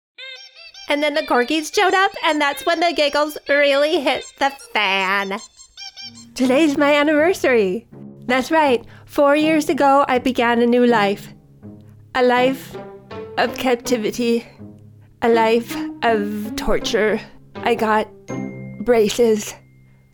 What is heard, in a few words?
animation